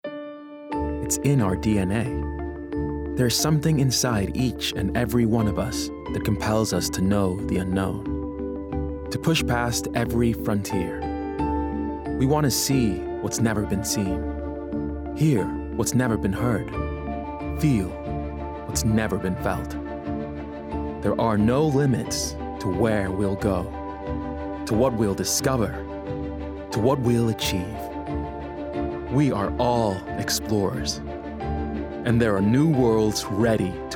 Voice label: US accent; PS5